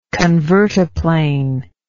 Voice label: "convertaplane", English